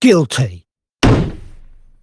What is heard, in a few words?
guilty; tgaajurors; shiv